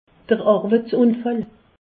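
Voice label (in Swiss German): "arweitsunfall", Alsace, Haut Rhin; medecine; accident de travail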